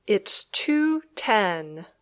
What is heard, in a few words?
"It's two ten", English